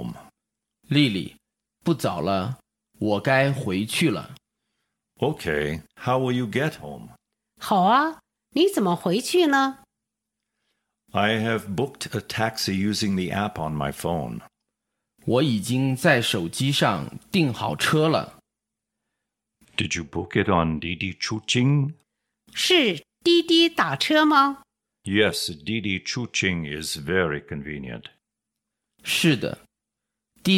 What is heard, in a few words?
Dialog